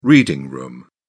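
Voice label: "reading room", British English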